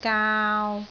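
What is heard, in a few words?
"gaau3", Cantonese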